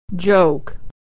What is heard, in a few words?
"joke", pronunciation